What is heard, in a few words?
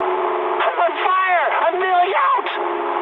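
English on fire mood high; War Thunder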